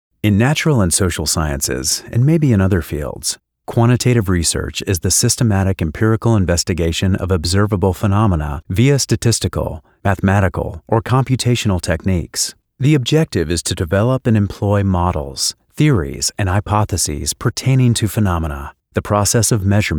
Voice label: Academic Elearning Statistics